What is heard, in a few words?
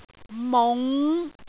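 "mung5", Cantonese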